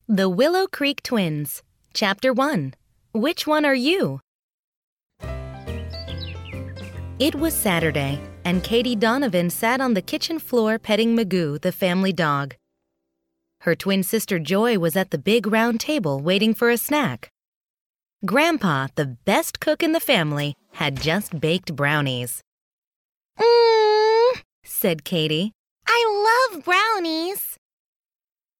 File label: Audiobook Sample Fun Narrator Girl Mom